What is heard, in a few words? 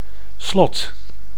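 "slot", Dutch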